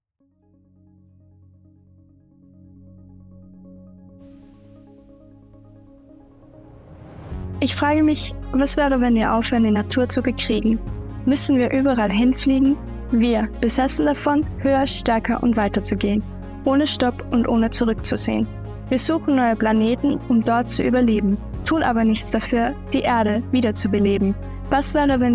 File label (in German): Umwelt Poetry Slam